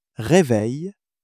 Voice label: "réveil", French